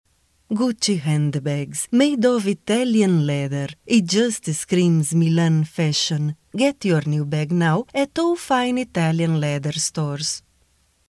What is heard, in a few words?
Italian Accent; foreign languages